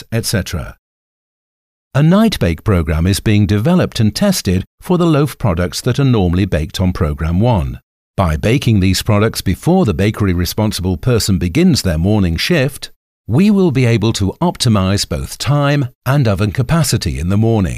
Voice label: Instructional Video Example

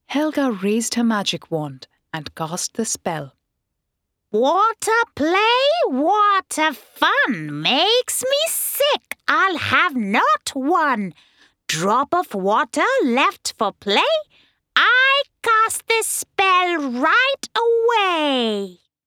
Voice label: Narrative

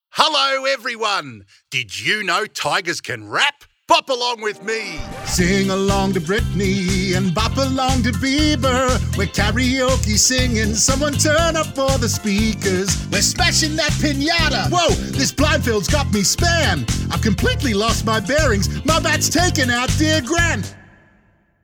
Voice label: CHARACTER; TIGSY

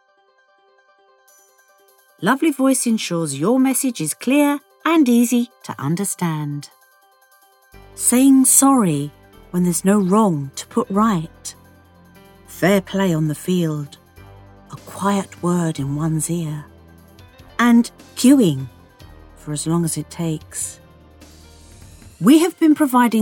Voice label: Informative

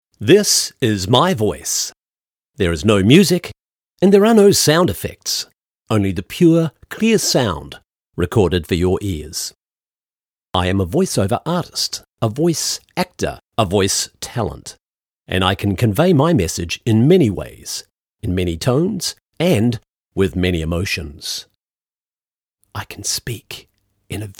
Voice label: UK

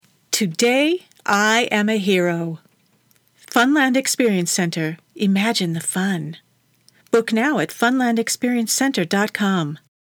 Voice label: kids

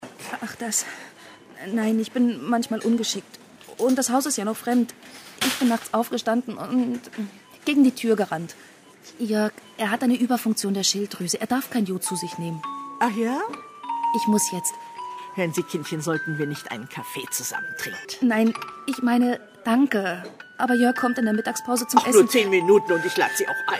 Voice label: drama for radio crime scene German

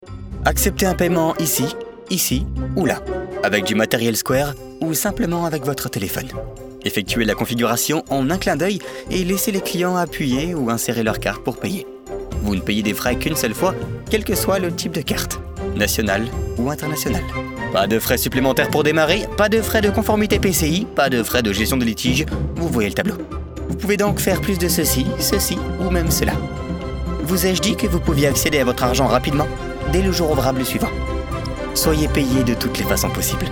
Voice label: Banking Explainer

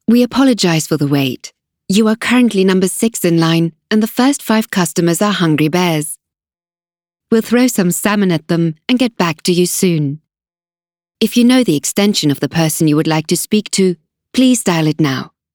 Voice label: on hold

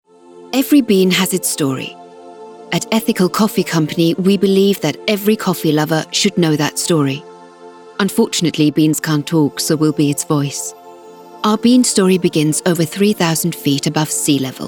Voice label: explainer; English (British)

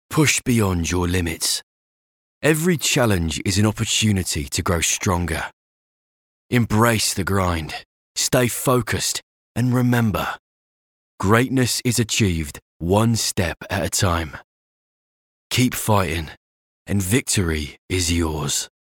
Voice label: Motivational Sports, London Cockney

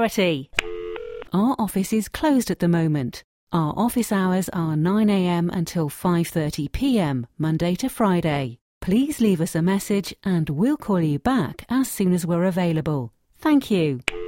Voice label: Tel on hold

